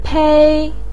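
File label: "pei1", Mandarin pinyin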